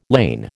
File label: "lain", American